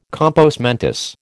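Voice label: "compos mentis", American English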